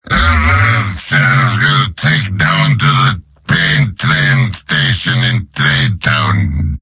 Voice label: demoman gibberish; mvm